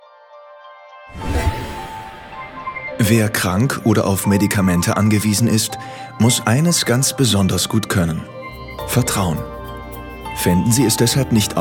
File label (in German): erklaerfilm; cloudforhealth